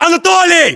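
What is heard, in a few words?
"anatoly", battlechatter, Russian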